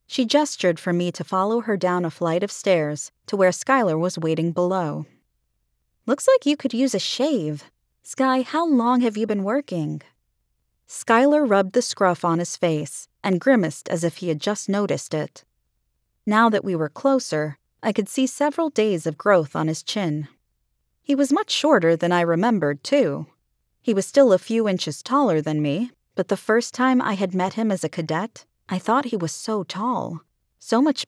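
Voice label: male female dialogue 1st person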